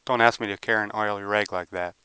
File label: male clean 16k; Wu-Wang Reverberant Speech